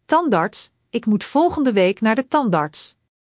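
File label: "tandarts", Dutch; Spelling Gr4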